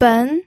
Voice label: "ben2", pinyin